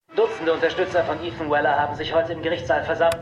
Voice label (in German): TV Sprecher; Law & Order